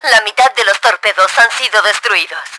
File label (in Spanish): half tube, Spanish; Battle Engine Aquila; XBOX